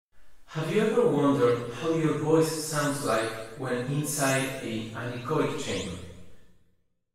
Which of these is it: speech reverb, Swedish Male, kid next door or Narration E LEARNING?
speech reverb